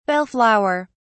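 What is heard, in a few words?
"bell flower", British English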